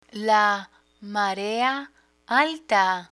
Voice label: "marea alta", Spanish; travel beach pool; learn spanish

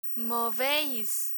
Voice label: "moveis" Spanish; irregular spanish verbs; learn spanish

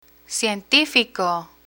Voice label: "cientifico", Spanish; learn spanish

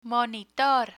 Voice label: "monitor", Spanish; spanish for kids; learn spanish

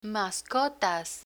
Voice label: "mascotas", Spanish

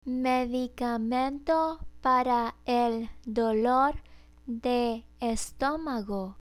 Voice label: "medicamento para el dolor de estomago", Spanish; travel shopping vocabulary; learn spanish

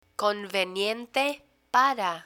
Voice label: "conveniente para", Spanish

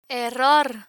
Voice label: "error", Spanish

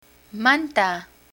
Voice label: "manta", Spanish

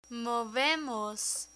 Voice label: "movemos" Spanish; irregular spanish verbs; learn spanish

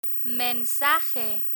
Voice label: "mensaje", Spanish; learn spanish

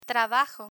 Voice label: "trabajo", Spanish

